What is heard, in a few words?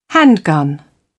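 "handgun", British English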